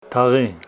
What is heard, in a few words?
Homshetsi elm